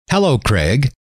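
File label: "hello", male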